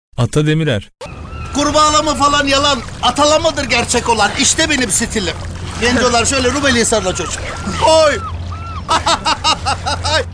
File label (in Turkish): animasyon; Ata Demirer